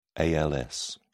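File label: "als", British English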